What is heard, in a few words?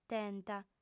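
"tenta", Sardinian, female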